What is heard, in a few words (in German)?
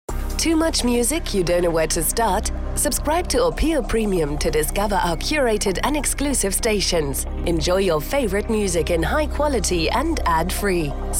Werbung englisch